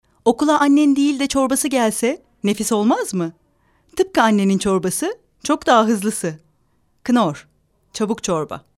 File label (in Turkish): reklam